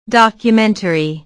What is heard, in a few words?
"documentary", ingles